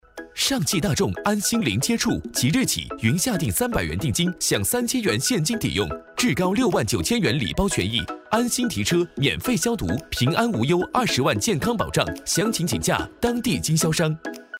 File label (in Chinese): 电台广告; 上汽大众